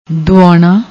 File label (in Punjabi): "duaona", Punjabi; ਦੁਆਉਣਾ